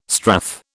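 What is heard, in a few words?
"strath", British English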